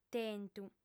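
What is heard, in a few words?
"tentu", female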